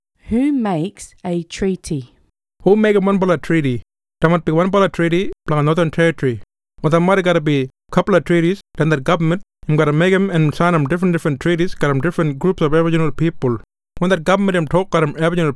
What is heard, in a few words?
Kriol; WHO MAKES A TREATY